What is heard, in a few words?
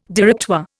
"Directoire", Indian